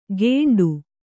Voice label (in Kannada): "ಗೇಂಡು", Kannada